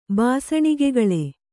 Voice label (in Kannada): "ಬಾಸಣಿಗೆಗಳೆ", Kannada